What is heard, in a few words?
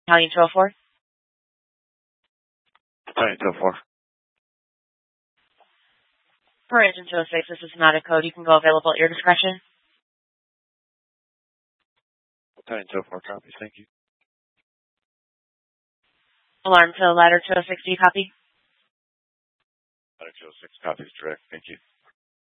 Fire Departments Mesa Simulcast; TOPAZ